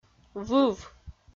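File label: "выв", Komi, female; audio dict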